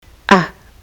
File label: a as in butter, kiwi english